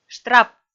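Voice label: "штрап", Komi, female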